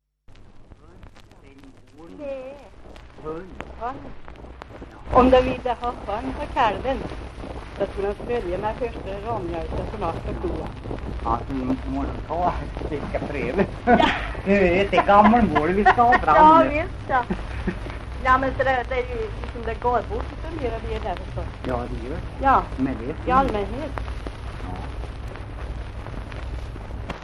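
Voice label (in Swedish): Hede Härjedalen, dialekter; Folkminnen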